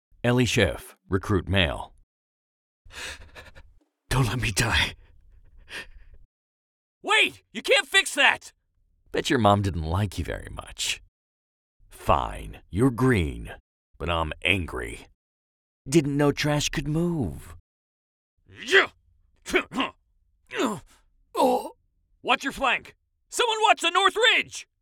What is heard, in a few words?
Recruit Male